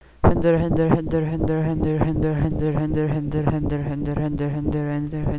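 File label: "handle", spoken word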